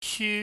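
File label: "syu", Cantonese, high falling tone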